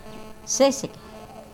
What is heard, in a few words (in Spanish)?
Nawat palabras helado; pipil